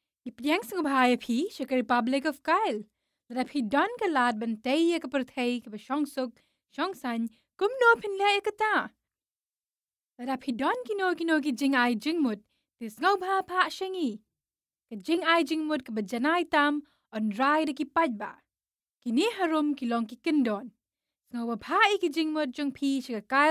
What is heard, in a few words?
corporate khasi